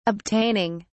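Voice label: "obtaining", British English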